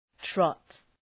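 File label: "trot", English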